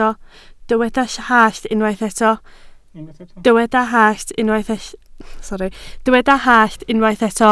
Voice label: "hallt", Welsh